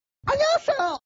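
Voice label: "annyeong", Korean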